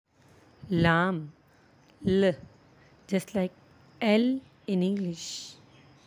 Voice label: "lam", Arabic; ل; Like L